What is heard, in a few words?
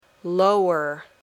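"lower", English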